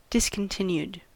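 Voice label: "discontinued", US English; pronunciations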